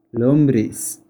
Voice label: Earthworm spanish